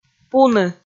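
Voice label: "пуны", Komi, female; kpv audio dict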